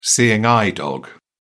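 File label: "seeing eye dog", British English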